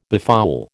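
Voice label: "befoul", American English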